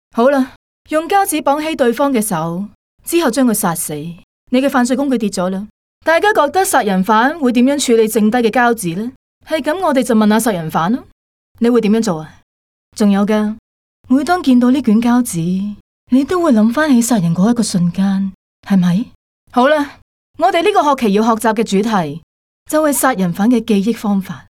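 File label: chinese cantonese female narration; voice demos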